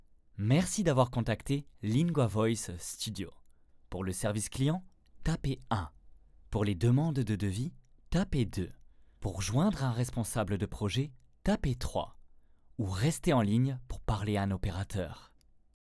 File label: French IVR Corporate